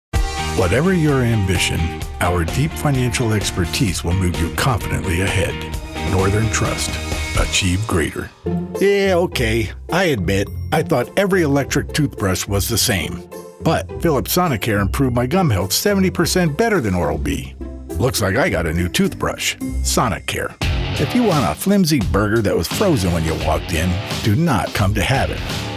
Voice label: Commercial Voiceover